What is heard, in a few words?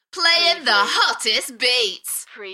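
UK female, Enthusiastic, DJ vocals; beanstalk-audio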